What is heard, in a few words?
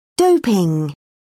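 "doping", British English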